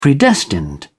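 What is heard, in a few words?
"predestined", British English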